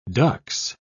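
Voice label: "dux", British English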